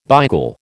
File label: "beigel", American